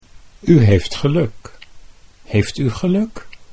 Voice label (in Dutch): "u heeft geluk", Dutch